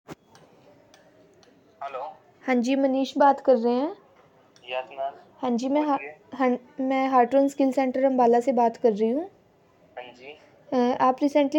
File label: call recording